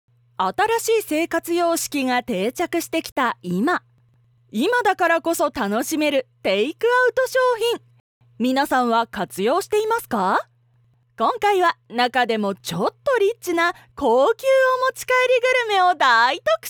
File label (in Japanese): ナレーションサンプル お持ち帰りグルメ